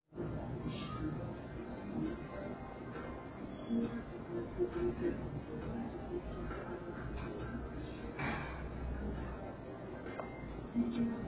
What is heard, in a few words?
Voice Call voice